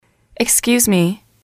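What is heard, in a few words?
excuse Me, english, female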